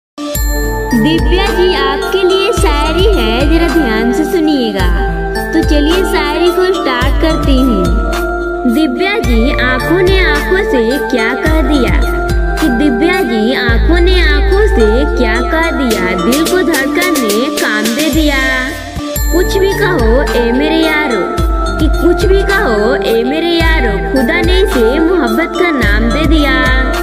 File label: divya naam ki ringtone divya name shayari; divya name mobile ringtone